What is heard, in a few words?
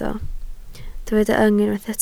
"yng", Welsh